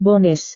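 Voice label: "bonus", Indonesian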